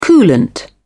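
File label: "coolant", British English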